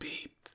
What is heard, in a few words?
Voices quiet; The Looker